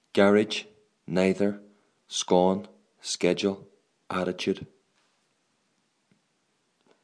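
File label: strabane ireland male, accent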